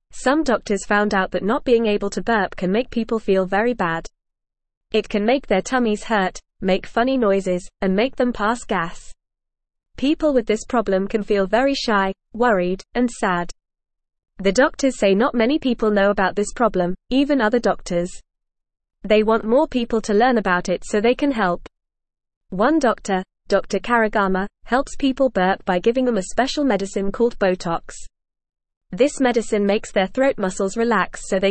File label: English Newsroom Beginner FAST Reading